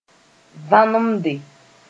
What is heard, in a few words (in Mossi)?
"vãnemde", moore